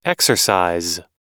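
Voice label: "exercise", English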